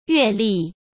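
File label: "阅历", Mandarin Chinese; chinese flashcards; hsk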